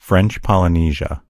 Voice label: "french polynesia", en US; gcompris; geography